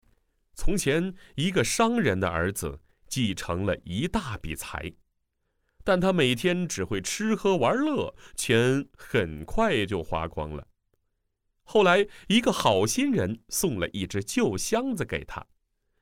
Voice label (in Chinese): 少儿童书